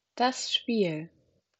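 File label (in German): "Spiel", German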